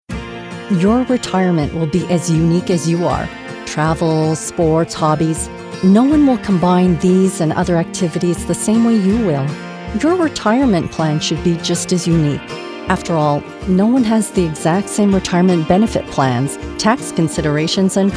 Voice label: CORPORATE; RBC